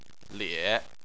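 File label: "lie", Chinese, third tone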